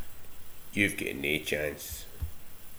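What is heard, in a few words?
"nae", slang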